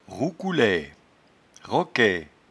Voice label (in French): "roucoulaie", patois jura